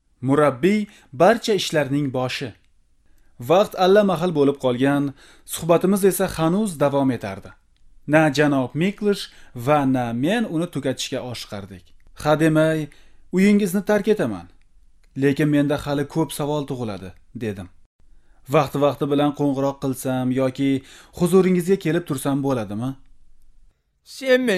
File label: Uzbek Male